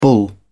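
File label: "bull", British English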